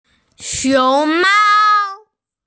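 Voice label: "xiong2mao1", Mandarin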